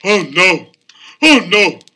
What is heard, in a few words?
"oh no oh no", Voice; ooh ahh